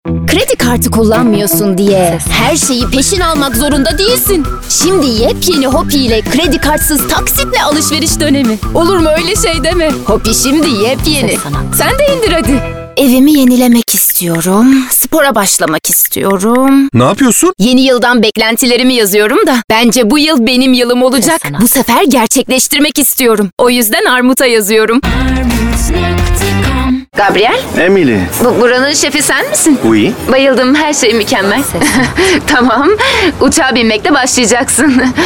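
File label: Turkish, seslendirme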